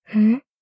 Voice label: doubt